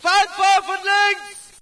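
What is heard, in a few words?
German taking fire left; video games; player; source engine; dod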